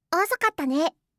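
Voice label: "osokattane", Japanese; gaisyutsu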